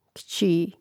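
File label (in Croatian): "kći" Croatian; Mrežnik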